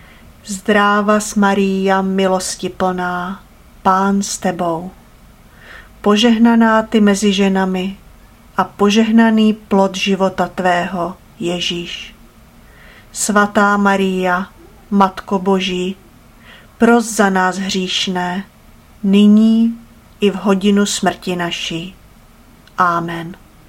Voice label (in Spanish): ave maria checo normal; ave maria idiomas